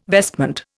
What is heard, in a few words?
"vestment", Indian